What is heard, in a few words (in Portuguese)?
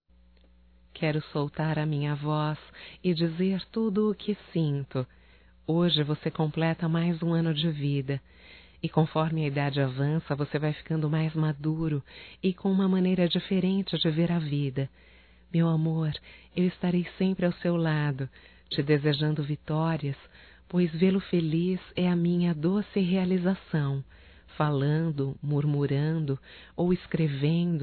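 romântico female, Telemensagens Aniversarios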